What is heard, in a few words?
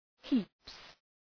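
"heaps", English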